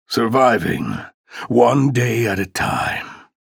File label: chatter neutral; ranger